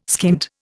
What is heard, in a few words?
"skint", Indian